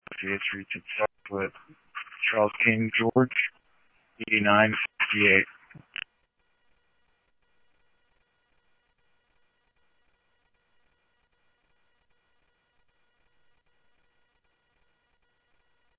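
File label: police radio dispatch, Scottsdale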